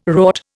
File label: "wrought", Indian